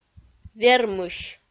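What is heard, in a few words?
"вермысь", Komi, female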